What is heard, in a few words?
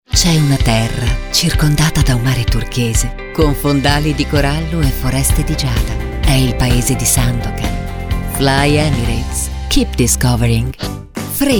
Commercials General